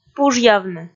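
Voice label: "пужъявны", Komi, female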